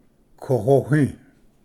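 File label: "kohohi", Cherokee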